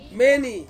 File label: "meni", Ansus; thin